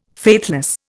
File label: "faithless", Indian